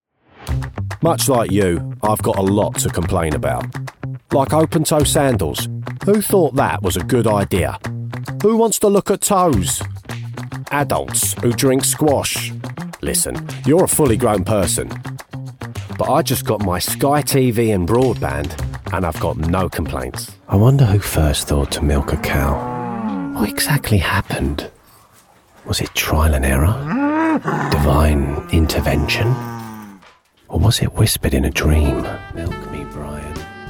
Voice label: Commercial